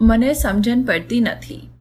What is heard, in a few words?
no understand, gujarati